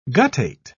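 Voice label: "guttate", British English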